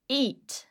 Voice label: "eat", vowels i